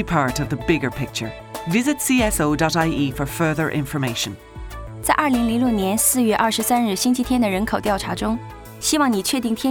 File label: CENSUS CHINESE, advertising, radio; broadcast in the week leading up to Census Day; to emphasise the inclusiveness of the census